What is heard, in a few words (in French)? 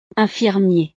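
"infirmier", French; profesiones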